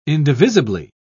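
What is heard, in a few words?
"indivisibly", British English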